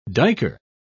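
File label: "duiker", British English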